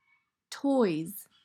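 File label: "Toys", English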